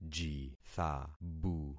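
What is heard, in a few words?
"jithabu", concatenated syllables; Affixing experiment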